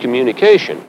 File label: LoFi Words, LoFi Vocals